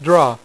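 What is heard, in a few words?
"draw" spoken, poker; iPoker 2000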